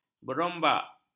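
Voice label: "berombak", Malay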